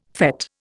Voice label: "fête", Indian